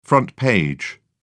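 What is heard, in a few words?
"front page", British English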